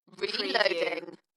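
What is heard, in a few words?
British female Reloading; Dalekness; beanstalk audio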